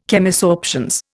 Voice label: "Chemisorptions", Indian